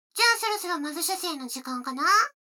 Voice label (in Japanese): 女の子 台詞